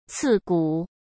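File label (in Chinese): "刺骨", Mandarin Chinese; hsk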